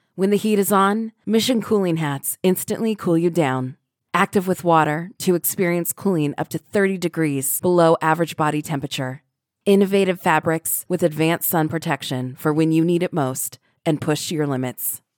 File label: Online Ad 30s Female Tone; Serena Williams